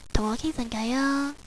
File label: Chat, Cantonese girl; ICQ